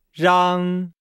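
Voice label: "rang", Chinese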